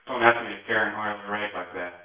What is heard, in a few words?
male